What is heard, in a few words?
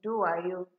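"do wayo", Nigerian Pidgin, female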